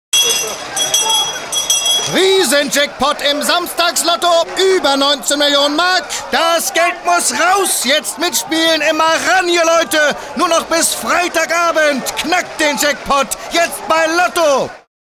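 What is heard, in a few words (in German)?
marktschreier lotto, sprachproben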